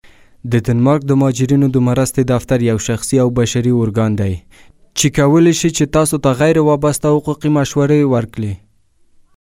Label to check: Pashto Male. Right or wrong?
Right